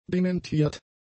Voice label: "dementiert", german; adjectives

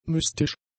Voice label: "mystisch", German; adjectives

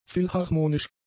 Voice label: "philharmonisch", German; adjectives

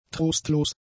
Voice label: "trostlos", German; adjectives

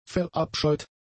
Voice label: "verabscheut", German; adjectives

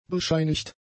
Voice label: "bescheinigt", German; adjectives

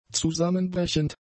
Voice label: "zusammenbrechend", German; adjectives

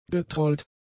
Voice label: "getrollt", german; adjectives

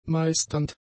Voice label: "meisternd", German; adjectives

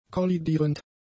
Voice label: "kollidierend", German; adjectives

